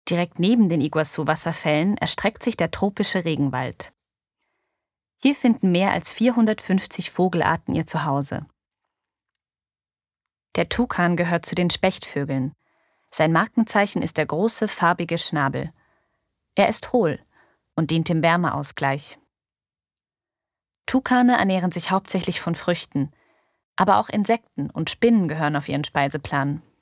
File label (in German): Off Text Doku Regenwald; LOFT VOICES